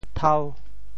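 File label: "thau5", Teochew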